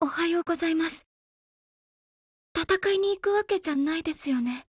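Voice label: HELLO, Japanese; GF Alarm; Colt Defender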